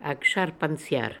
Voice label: "Aksharpanziar", pronounciations; Fourth Way; Beelzebub